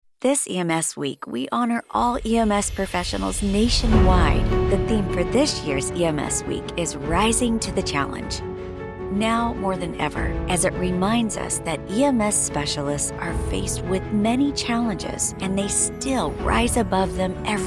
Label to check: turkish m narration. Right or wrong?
Wrong